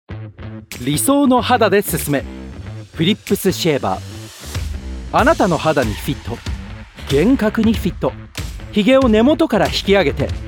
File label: Commercial Ad Japanese; Phillips